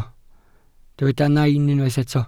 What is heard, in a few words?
"nain", Welsh